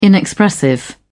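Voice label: "inexpressive", British English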